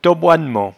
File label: "tot boinnement", patois jura